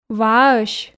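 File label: "вааӧсь", Komi, female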